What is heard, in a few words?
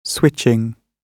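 "switching", British English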